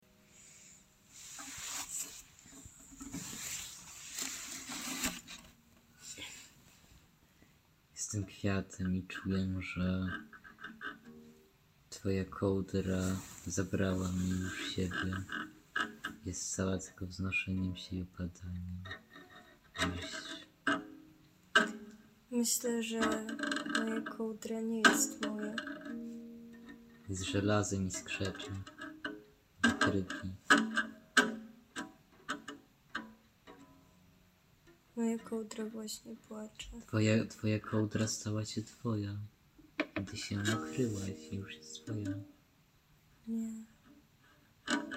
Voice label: dialog